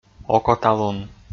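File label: "окоталун", Komi, male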